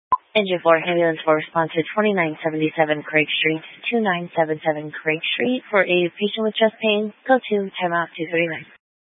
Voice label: Fire Dispatch, scanner

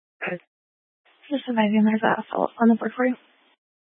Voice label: Police 1 Dispatch

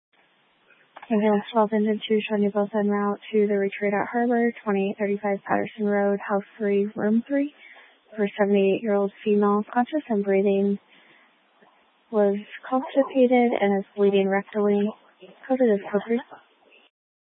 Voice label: Grand Junction Fire Department Dispatch

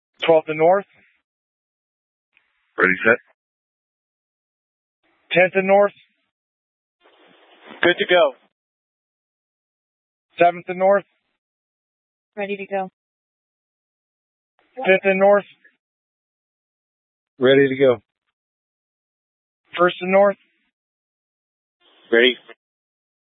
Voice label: Tactical Incidents, scanner

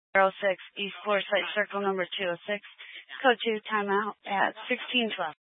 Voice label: Fire Department Dispatch, scanner; Grand Junction

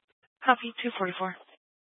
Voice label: Fire Department Dispatch, scanner

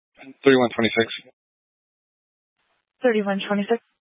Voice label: Police Department Dispatch; Grand Junction

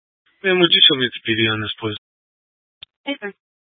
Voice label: Police Department Dispatch, scanner

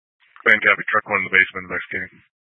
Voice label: Incident Tac 1, scanner

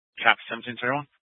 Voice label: Police Dispatch, scanner

